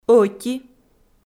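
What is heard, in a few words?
"ӧти", Komi-Zyrian, female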